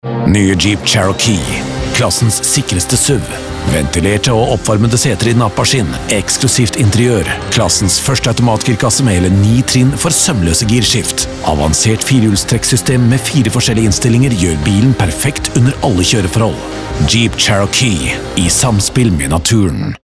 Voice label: norwegian commercial

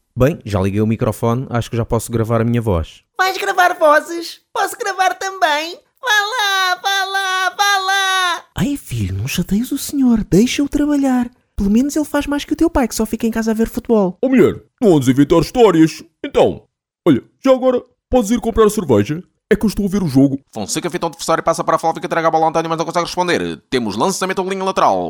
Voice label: Portuguese impersonations

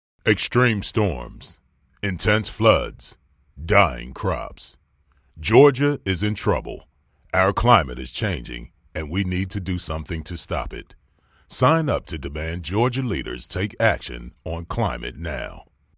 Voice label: english american political ads

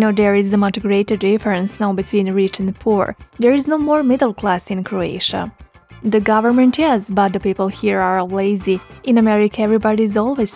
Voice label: english; Professional Serbian native voice-over actor from Belgrade

